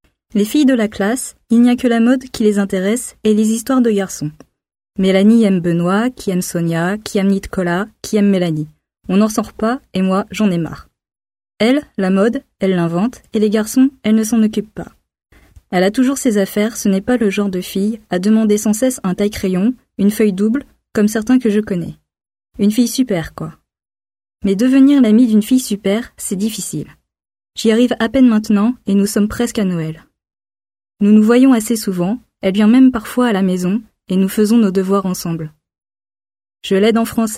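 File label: French, female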